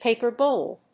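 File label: "paper gold", English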